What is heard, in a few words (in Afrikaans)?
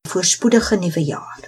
new year, afrikaans